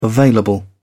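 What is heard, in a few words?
"available", English, British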